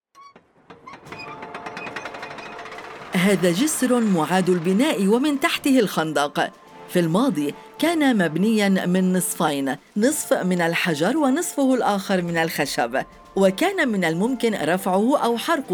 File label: Arabic